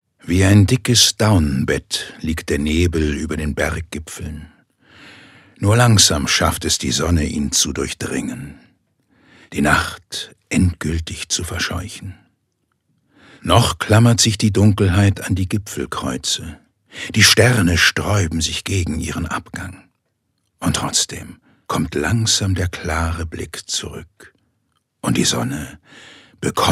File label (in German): Schauspiel Sanft